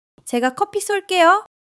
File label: joy